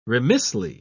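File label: "remissly", British English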